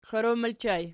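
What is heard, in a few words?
"klorom" ergative plural, Archi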